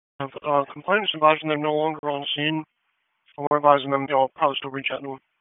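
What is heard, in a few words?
Raleigh Police Southeast District Dispatch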